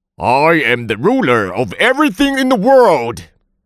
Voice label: 英 男 角色; 阎罗王

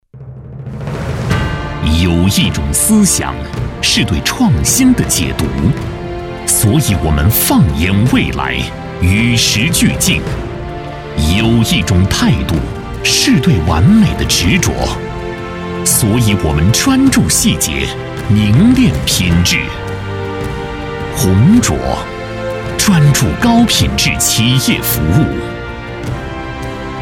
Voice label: 男-大气磅礴-企业专题

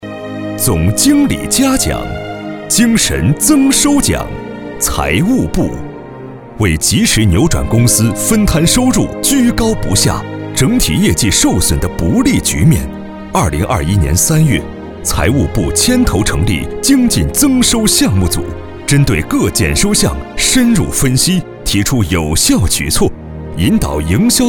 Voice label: 男 颁奖; 4s店颁奖混

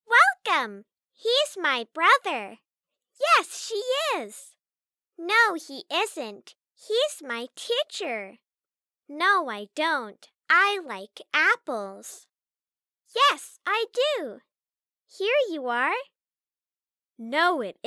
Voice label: 多角色模仿

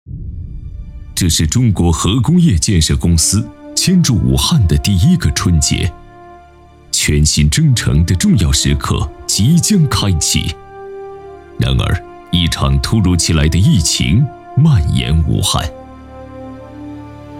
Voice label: 男 党政专题 深沉大气 抗疫宣传片; 央企中核工业抗疫宣传片

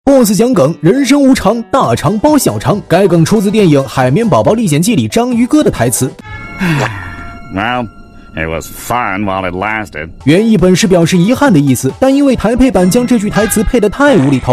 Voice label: 男 抖音解说; 人生无常-大肠包小肠-海绵宝宝-综艺安利官-娱乐评论大赏

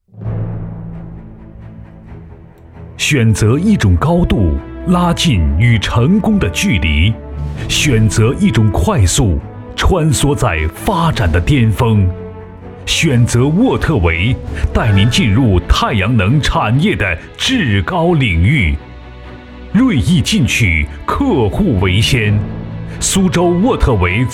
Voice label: 男 企业宣传; 沃特维自动化系统有限公司

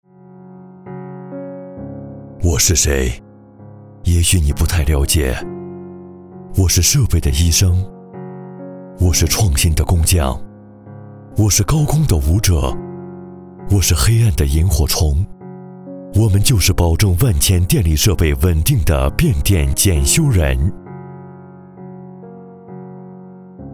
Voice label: 男 旁白 走心感动 变电

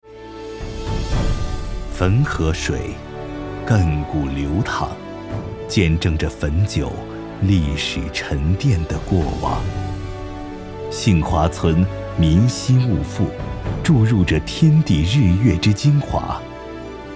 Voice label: 男 专题片 汾酒 大气厚重

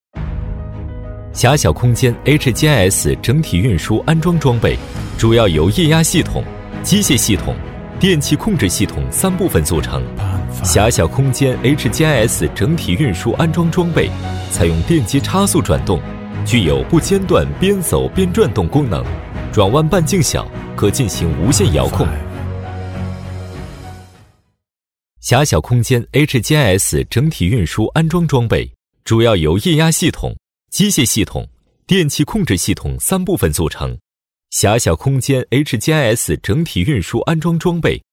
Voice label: 男 产品解说 新闻感; 整体运输安装装备

